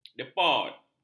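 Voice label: "depot", Malay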